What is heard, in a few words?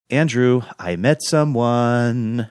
saying andrew i met someone singsongy